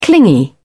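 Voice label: "clingy", British English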